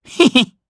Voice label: Vox Happy, jp; Bernheim; kingsraid